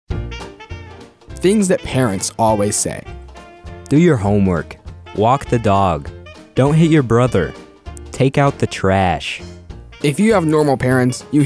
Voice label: PSA